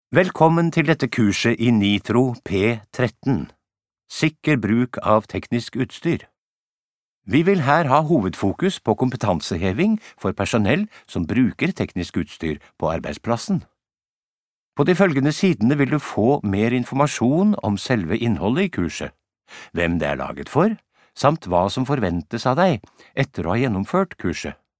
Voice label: no elearning